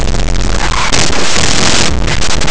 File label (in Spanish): "chocolate", africades; laboratori fonetica